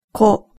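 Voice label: "ko", Japanese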